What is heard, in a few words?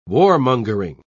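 "warmongering", English, British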